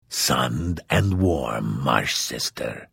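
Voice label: Hello, Vo; Morrowind GOTY GOG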